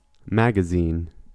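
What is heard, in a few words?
"magazine", US English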